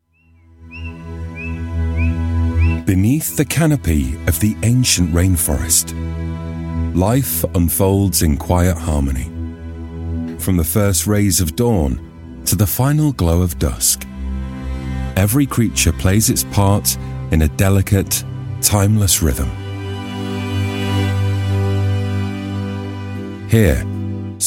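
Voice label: narration, Gravitas Warm Trustworthy Voice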